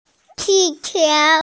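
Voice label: "qì qiú", Mandarin Chinese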